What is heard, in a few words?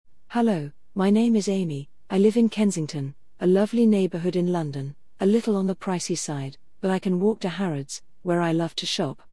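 Neural British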